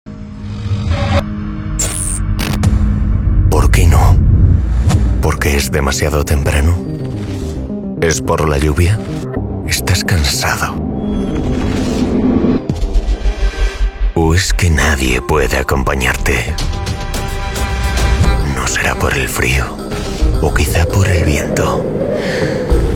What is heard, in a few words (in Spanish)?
Spot Tv deporte; Gobik; Nueva colección Cold Series 20-21; Las excusas no te representan